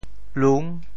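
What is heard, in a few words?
"lung6", Teochew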